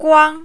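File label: "kuang", Mandarin, first tone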